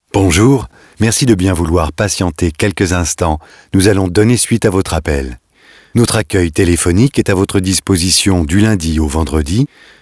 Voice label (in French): Repondeur